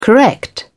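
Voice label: "correct", British English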